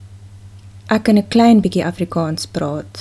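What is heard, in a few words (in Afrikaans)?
"klein bietjie", Afrikaans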